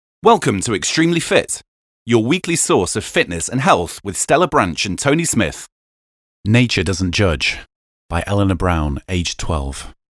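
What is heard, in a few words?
English, male; lektoring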